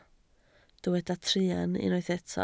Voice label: "truan", Welsh

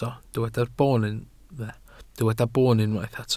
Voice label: "boon", Welsh

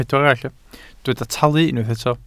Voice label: "talu", Welsh

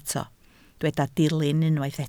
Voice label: "dilyn", Welsh

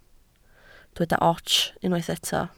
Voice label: "ots", Welsh

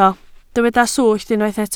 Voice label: "swllt", Welsh

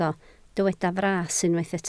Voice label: "fras", Welsh

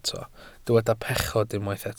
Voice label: "pechod", Welsh

